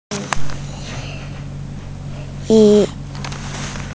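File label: "ji1", Mandarin Chinese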